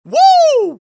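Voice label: scout taunt head pain, male voice; taunts; tf2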